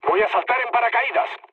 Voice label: bailout, mood low, speech; War Thunder